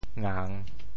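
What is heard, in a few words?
"ngang3", Teochew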